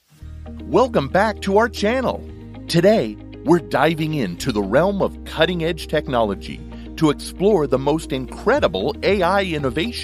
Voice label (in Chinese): 美式男声